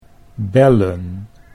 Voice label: "bellen", Dutch

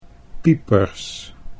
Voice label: "piepers", Dutch

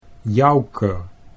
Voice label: "jouker", Dutch

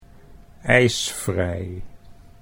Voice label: "ijsvrij", Dutch

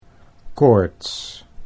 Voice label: "koorts", Dutch

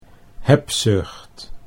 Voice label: "hebzucht", Dutch

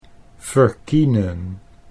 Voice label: "verkienen", Dutch

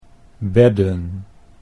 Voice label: "bedden", Dutch

